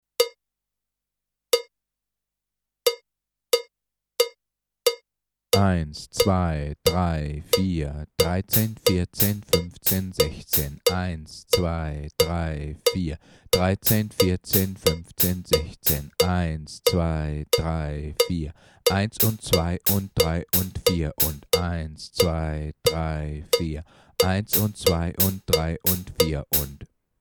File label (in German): Achtel zaehlen (deutsch)